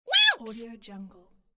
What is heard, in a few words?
cartoon yelling yikes; cartoon minion yelling yikes